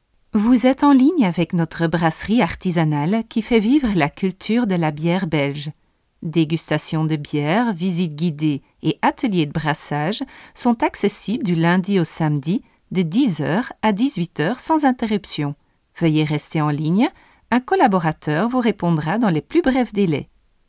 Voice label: Voice Brasserie FR, voice over voix off